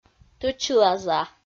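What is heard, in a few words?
"тӧчила за", Komi, female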